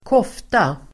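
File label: "kofta", Swedish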